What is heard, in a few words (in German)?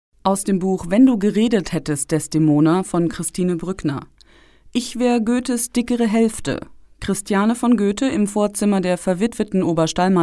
Hoerbuch